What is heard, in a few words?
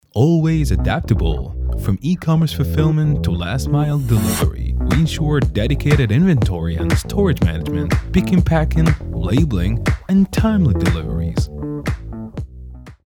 voiceover